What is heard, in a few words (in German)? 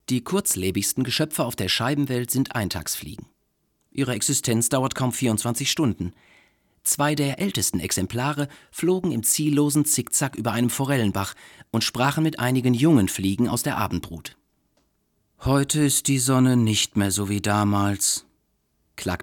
Dialog